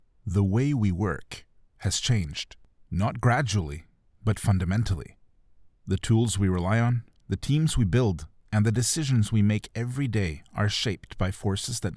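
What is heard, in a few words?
English Dry Corporate, voice demos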